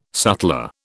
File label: "sutler", British